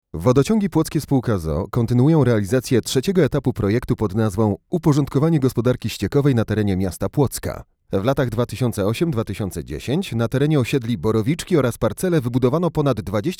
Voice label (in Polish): spot radio